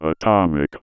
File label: VOICEFX ELCTR 140; Techno2